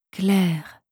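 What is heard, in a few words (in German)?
"Claire", französische Mädchennamen, Aussprache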